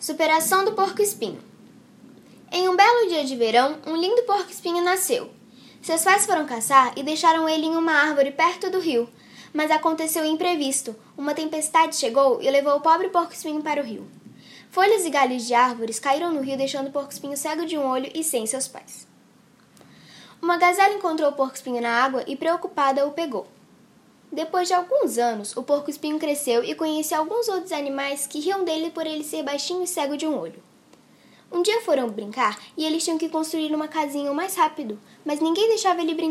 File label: AUDIOBOOK